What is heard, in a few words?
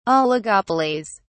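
"oligopolies", British English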